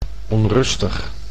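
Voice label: "onrustig", Dutch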